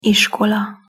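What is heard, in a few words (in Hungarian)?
"iskola" Hungarian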